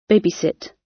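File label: "babysit", British English; Life, leisure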